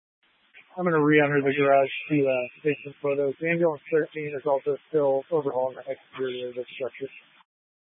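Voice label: Incident Tactical, scanner audio recording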